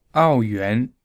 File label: "ao yuan", Mandarin